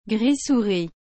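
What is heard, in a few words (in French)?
"gris souris", French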